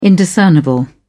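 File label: "indiscernible", British English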